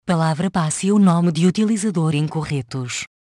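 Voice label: Username Or Password Incorrect, Portuguese (Portugal); Hackintosh T480; AXEFIAudio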